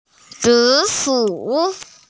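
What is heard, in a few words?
"zi3shu3", Chinese, female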